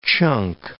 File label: "chunk", English